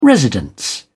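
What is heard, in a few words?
"residence", British English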